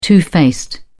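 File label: "two faced", English, British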